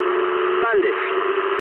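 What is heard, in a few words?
English enemy detected mood low; War Thunder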